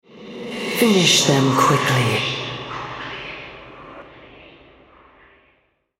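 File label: Altar Capture Female, en US; ShadowIsles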